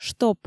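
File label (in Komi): "штоп", Komi, female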